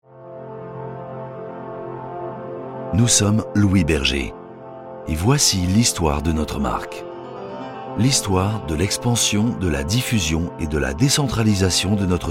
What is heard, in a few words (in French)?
film institutionnel; Louis Berger